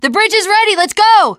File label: bridge available, teengirl